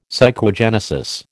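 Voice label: "psychogenesis", American English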